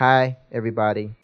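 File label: "hi everybody", voice; introductions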